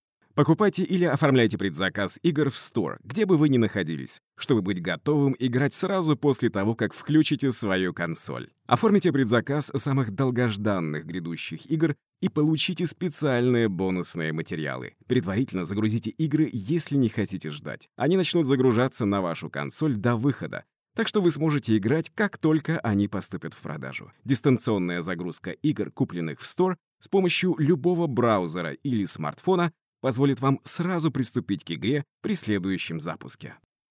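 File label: Russian Russia male sales; russian voice over talent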